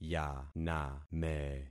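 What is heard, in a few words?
"yaname", concatenated syllables; Affixing experiment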